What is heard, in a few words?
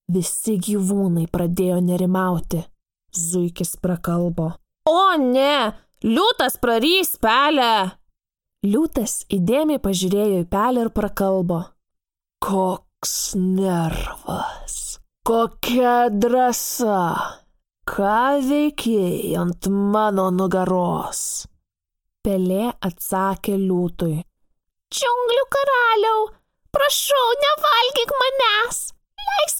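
Lithuanian, audiobook; GoLocalise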